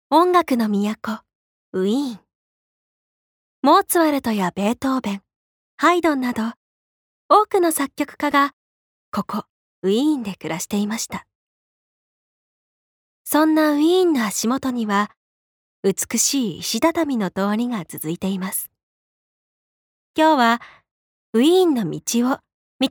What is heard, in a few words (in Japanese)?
ナレーション